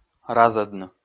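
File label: "разӧдны", Komi, male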